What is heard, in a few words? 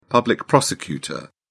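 "public prosecutor", British English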